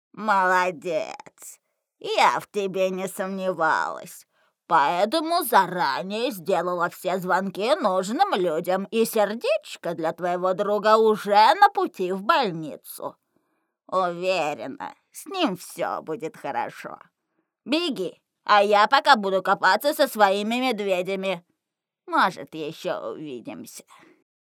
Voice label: npc granny success